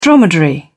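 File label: "dromedary", British English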